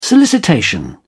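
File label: "solicitation", English, British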